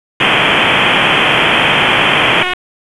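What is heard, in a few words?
Fire Department HQ Dispatch; RFD; wake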